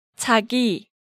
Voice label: "Jagi", Korean

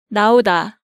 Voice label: "Naoda", Hangeul sounds; DigitalSoju

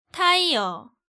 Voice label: "Tieo", Korean

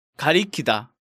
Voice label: "garikida", Korean

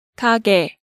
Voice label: "Gage", Korean

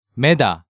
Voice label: "Meda", Korean

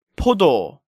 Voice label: "Podo", Korean

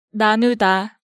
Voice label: "Nanuda", Hangeul sounds; DigitalSoju

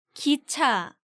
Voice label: "Gicha", Korean

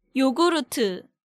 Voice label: "Yogurt", Korean

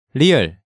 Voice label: "Lieul", Korean

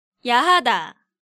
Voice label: "Yahada", Korean

